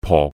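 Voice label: "po4" Cantonese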